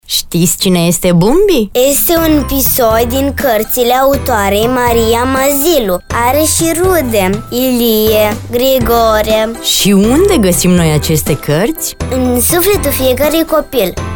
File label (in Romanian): voce copil